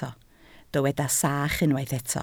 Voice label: "sach", Welsh

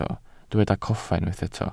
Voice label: "coffa", Welsh

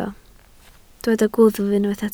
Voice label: "gwddf", Welsh

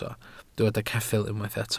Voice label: "ceffyl", Welsh

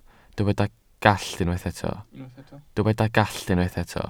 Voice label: "gallt", welsh

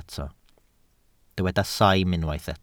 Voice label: "saim", Welsh

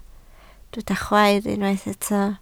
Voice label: "chwaer", Welsh

